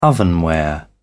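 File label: "ovenware", British English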